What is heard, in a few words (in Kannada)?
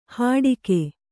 "ಹಡಿಕೆ", Kannada